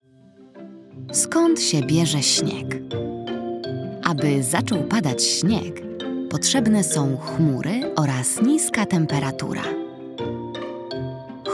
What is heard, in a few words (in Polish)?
narracja; czasopismo mis; zima